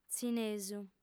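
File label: "tzinesu", lingua sarda, female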